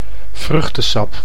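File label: "vruchtesap", Dutch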